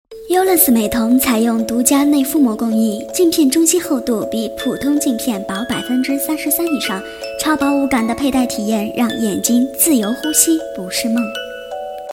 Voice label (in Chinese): 广告配音 美瞳